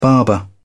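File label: "barber", British English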